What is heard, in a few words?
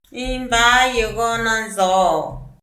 "in bayogo me nan zo'o", Mazahua; INALI; AprendeMazahua